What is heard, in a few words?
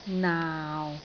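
"naau6", Cantonese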